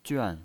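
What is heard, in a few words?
"juan", fourth tone, pinyin voice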